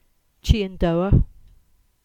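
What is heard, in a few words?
"Chyandour", Cornish; place-names; Chiendour 1452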